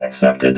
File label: accepted, robot